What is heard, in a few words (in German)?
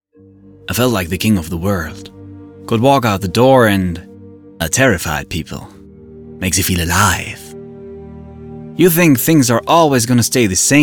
Sprachdemos; Piloted Driving; LOFT VOICES